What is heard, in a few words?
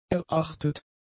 "erachtet", German; adjectives